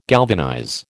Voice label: "galvanize", American

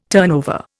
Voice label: "turnover", Indian

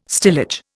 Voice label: "stillage", Indian

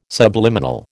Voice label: "subliminal", American

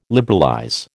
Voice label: "liberalize", American

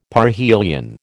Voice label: "parhelion", American

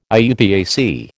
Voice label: "IUPAC", American

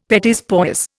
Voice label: "petits pois", Indian; Dictionary

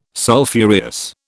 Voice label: "Sulphureous", British

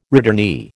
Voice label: "returnee", American

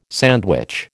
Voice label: "sandwich", American

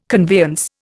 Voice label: "conveyance", Indian

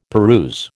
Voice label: "peruse", American

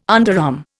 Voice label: "underarm", Indian

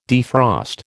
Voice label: "defrost", American

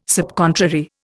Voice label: "subcontrary", Indian

